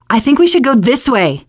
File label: follow me, teengirl, voice